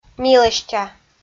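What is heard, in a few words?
"милӧстя", Komi, female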